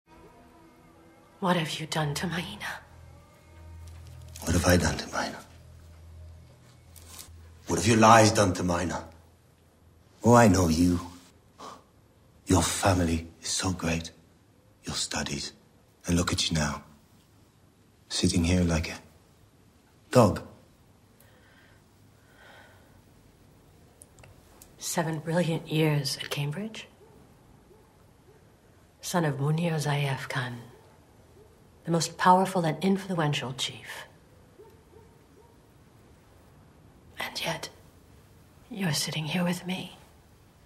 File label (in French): doublage; Special Forces; Diane Kruger